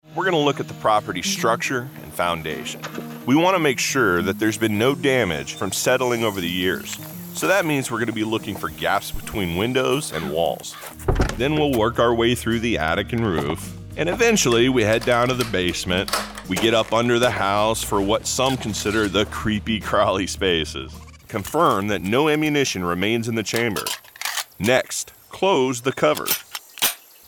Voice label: Narration